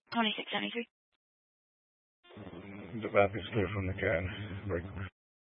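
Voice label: Sheriff Dispatch, Scanner audio recording archive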